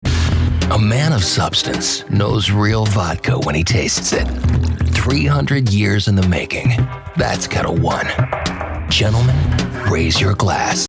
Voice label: Radio Werbespots Mannliche; Publikum; NATIVE PROFISPRECHER

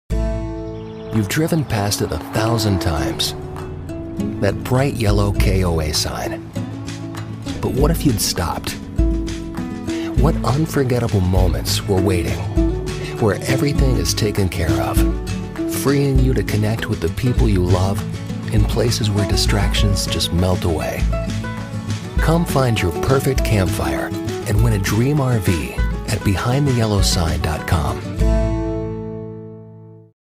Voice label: TV Werbespots; Jugendfrei; NATIVE PROFISPRECHER

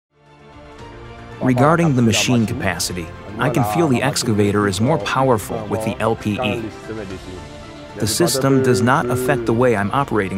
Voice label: Produktvideos Profi Publikum; NATIVE PROFISPRECHER

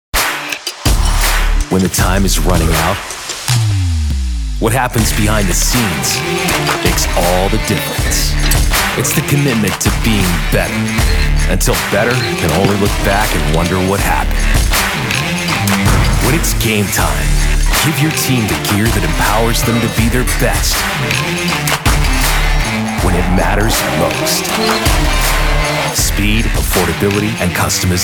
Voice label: TV Werbespots Online Werbespots; NATIVE PROFISPRECHER

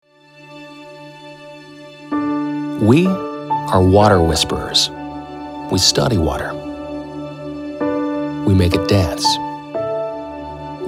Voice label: TV Werbespots; Jugendfrei; NATIVE PROFISPRECHER

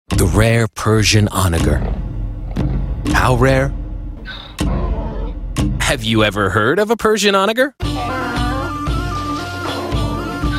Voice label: TV Werbespots Jugendfrei; NATIVE PROFISPRECHER

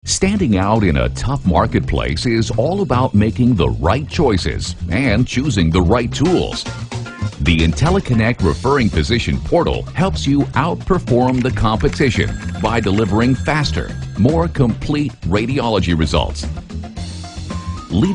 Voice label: Produktvideos Profi Publikum; NATIVE PROFISPRECHER

